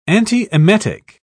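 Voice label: "antiemetic", British English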